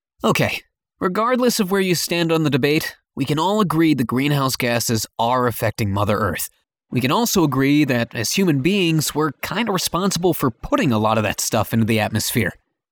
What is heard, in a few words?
new corporate samples